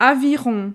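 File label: "aviron", French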